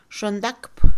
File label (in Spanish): avergonzarse, Mixe, Mujer; Emociones; INALI